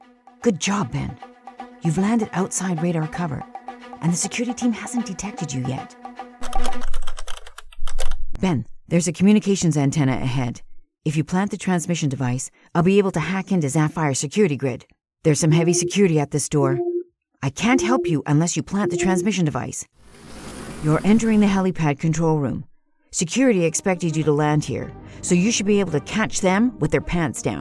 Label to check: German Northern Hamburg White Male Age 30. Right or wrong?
Wrong